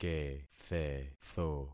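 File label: "kethetho", concatenated syllables; Affixing experiment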